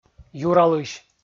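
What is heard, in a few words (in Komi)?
"юралысь" Komi, male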